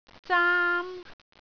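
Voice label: "zaam1", Cantonese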